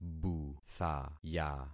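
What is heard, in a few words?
"buthaya", concatenated syllables; Affixing experiment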